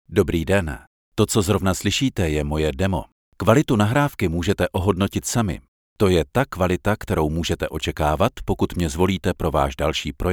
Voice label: czech male